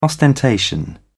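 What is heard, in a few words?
"ostentation", British English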